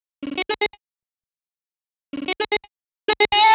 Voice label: vocal loop, 126; F#; funky; Loopmasters; Funky Underground Vol 2